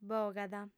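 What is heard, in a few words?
"bogat", female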